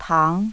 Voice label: tang, chinese pronunciation